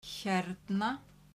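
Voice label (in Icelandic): "hérna", Icelandic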